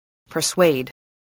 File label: "persuade", voice, English